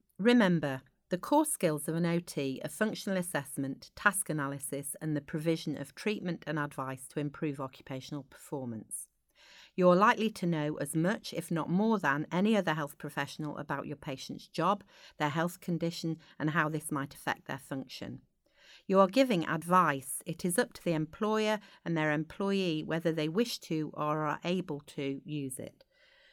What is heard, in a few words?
narration; AHP advisory fitness for work report; professional development